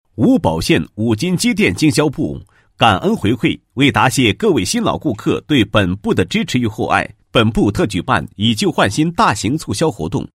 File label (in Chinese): 男 促销; 五金机电经销部感恩回馈